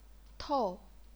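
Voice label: "tou", chinese pinyin, fourth tone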